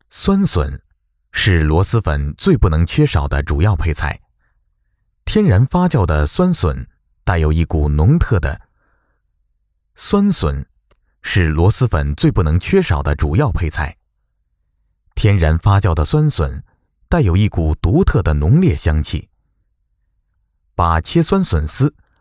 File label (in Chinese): 男 纪录片 螺蛳粉